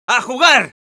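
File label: battle cry, Spanish; ¡A jugar!; Scout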